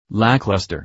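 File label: "lackluster", English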